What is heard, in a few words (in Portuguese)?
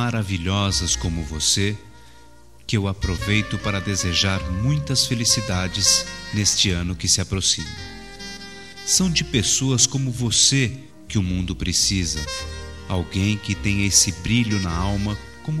Feliz Natal, M